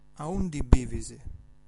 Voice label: where do you live, Sardinian